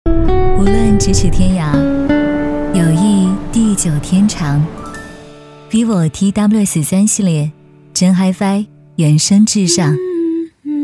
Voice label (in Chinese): 女 走心类; Vivo; TWS3